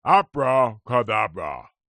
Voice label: human old man man says abracadabra; zapsplat